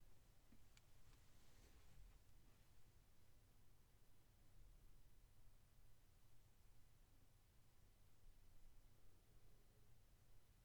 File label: booth audio